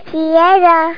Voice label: "See you", Kids